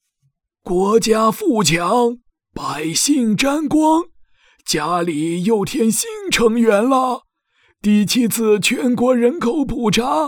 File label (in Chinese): 男-角色-老人音-老人-正派男音-邪恶老人音